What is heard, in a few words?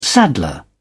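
"saddler", English, British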